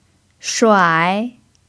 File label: "shuai3", chinese pinyin